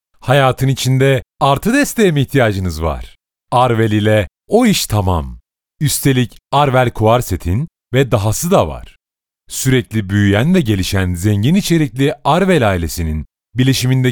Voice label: Turkish Male; Arwell